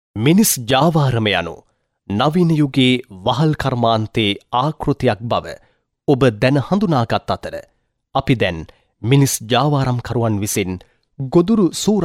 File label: Sinhala Male